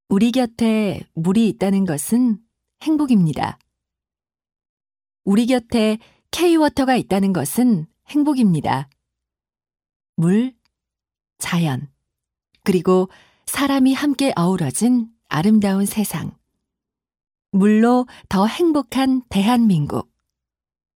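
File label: Korean, female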